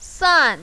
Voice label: "san4", mandarin, female